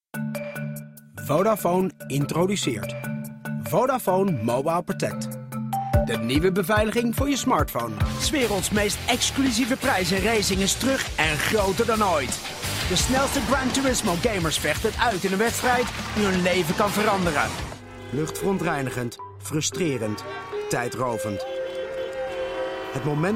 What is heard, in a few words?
Dutch Commercial; VOICEFOX